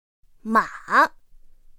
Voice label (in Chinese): "mǎ", pinyin; pinyin2; pinyinji